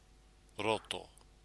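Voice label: "roto", Māori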